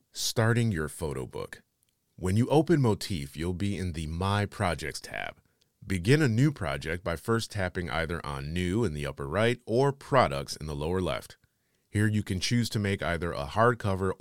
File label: Corporate; home studios